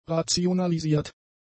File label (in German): "rationalisiert", German; adjectives